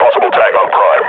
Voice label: player is hurt, grunt; Half Life Model Pack